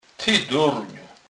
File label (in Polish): "doren", kurpiow